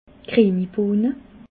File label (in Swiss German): "grienibohne", Alsace